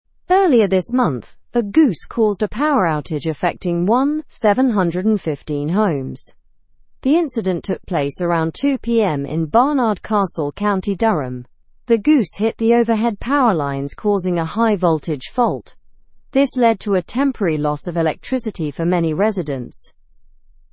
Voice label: learn english through news lower intermediate normal; goose knocks out power to homes